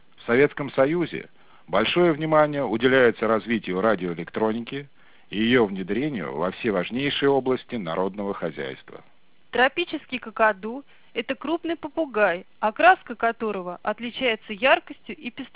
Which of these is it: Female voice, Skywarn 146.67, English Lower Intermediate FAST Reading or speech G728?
speech G728